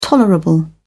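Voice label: "tolerable", British English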